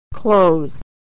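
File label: "close", American English; verb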